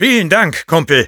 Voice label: thanks for the teleporter, de; Sniper